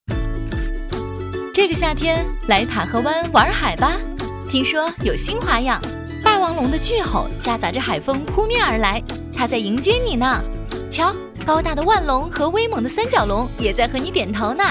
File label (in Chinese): 女 景点介绍 活泼轻快; 塔河湾; 质感知性; 轻松活泼